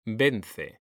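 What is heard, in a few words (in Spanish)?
"vence", Spanish; mekanta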